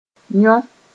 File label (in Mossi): "yõ", moore